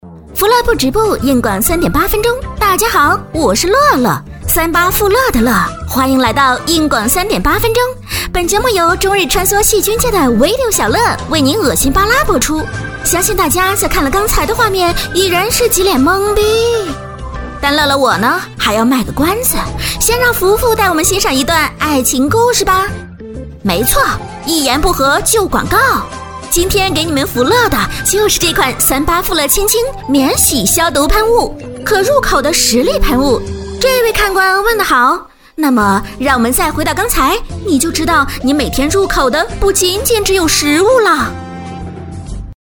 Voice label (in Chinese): 女 飞碟说